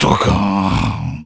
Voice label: Mumble; Salvatore; zelda sounds